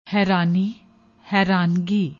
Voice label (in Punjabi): "hairani", Punjabi; ਹੈਰਾਨੀ